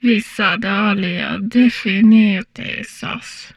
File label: humanoid female; Stellaris